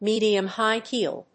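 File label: "medium-high heel", English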